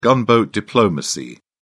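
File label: "gunboat diplomacy", British English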